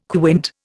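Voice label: "quint", Indian English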